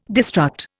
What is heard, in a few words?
"destruct", Indian